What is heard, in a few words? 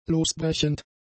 "losbrechend", German; adjectives